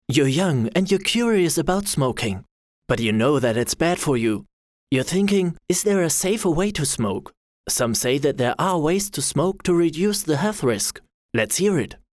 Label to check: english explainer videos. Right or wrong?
Right